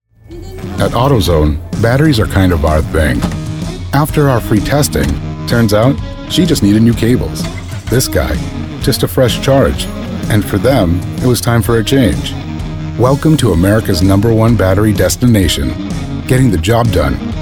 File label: Commercial Spot; AUTOZONE